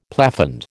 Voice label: "plafond", American